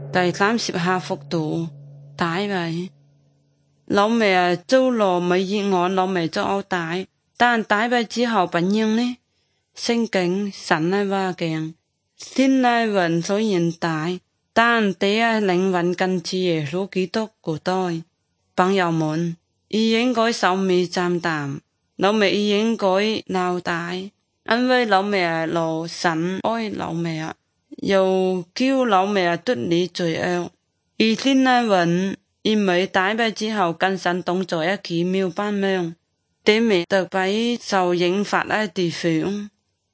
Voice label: good news zhuang guigang guiping